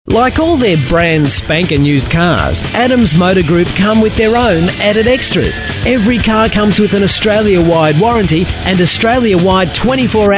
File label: male talent retail